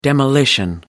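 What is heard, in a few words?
"demolition", British English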